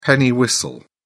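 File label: "penny whistle", English, British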